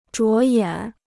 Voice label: "着眼", Chinese

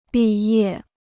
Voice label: "毕业", Chinese

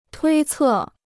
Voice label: "推测", Chinese

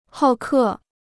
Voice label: "好客", Chinese

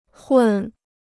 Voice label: "混", Chinese

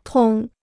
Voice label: "通", Chinese

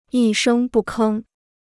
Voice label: "一声不吭", Chinese

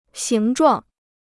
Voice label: "形状", Chinese

